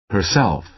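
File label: "herself", American English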